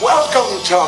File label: "welcome to", british bloke